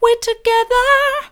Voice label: we're together soft; vocal

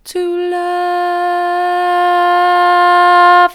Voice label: to love soft; vocal

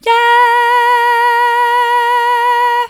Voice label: "yeah", vocal

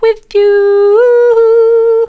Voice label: with you soft; vocal

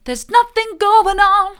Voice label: theres nothing going on soft; vocal

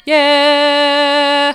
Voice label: "yeah", vocal

